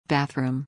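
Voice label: "Bathroom", English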